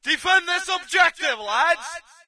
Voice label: brit defend obj; player; Source Engine; dod